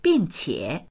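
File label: "bing qie", Mandarin; 并且; しかも、そのうえ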